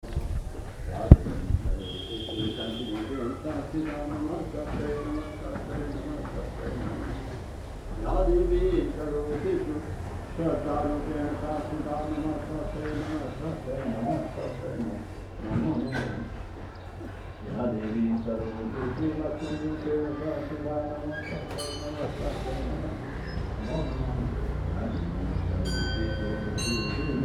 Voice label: sound walk voices, Kathmandu; Kathmandu soundwalks at dawn